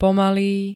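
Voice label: "pomalý", Slovak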